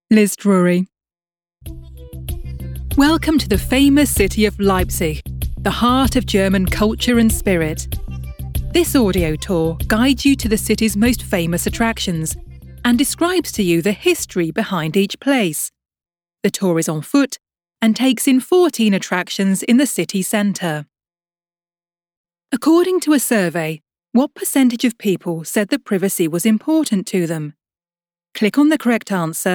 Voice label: corporate VOICEREEL